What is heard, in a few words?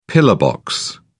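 "pillar box", British English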